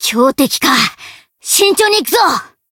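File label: encounter fear, jp; morgana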